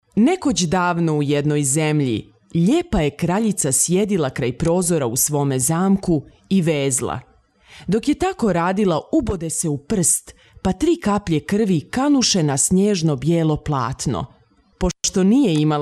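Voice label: croatian, female voice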